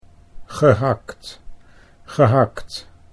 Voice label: "gehakt", Dutch

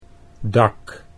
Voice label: "dak", Dutch

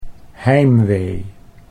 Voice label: "heimwee", Dutch

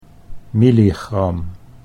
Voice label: "milligram", Dutch

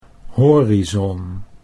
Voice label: "horizon", Dutch

